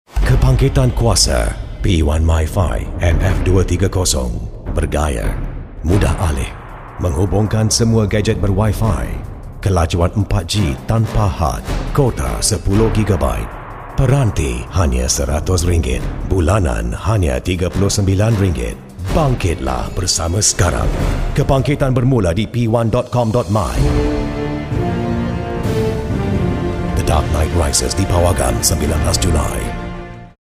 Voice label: Commercials Malay

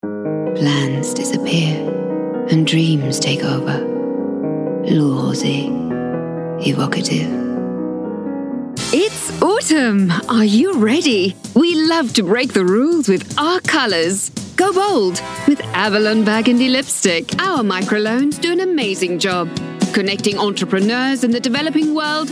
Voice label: Commercial

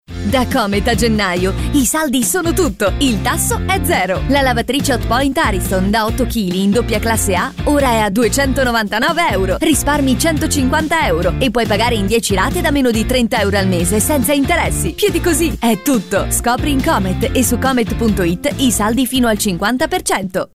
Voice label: Spot; Comet

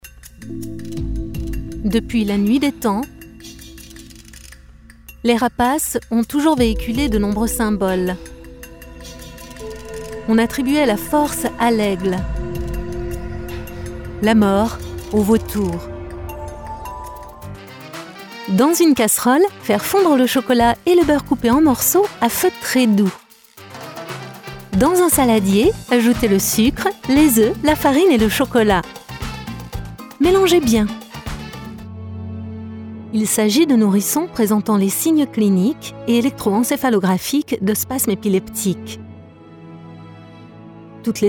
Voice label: French narrations

